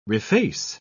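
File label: "reface", English, uk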